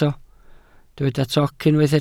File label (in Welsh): "toc", Welsh